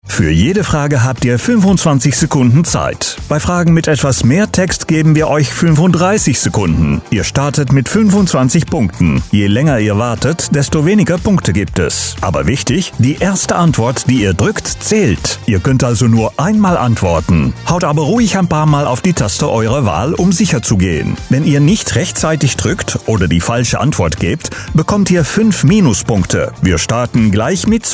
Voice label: game explanation in GERMAN, Dutch All Round Voice Male; GAME-UITLEG